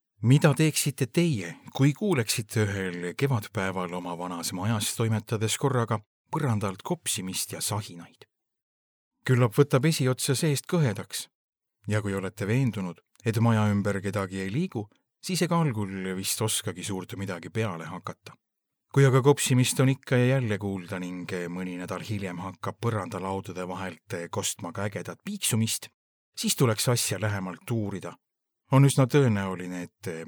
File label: male estonian voice over talent upbeat